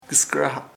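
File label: knife cuchillo, Chamacoco word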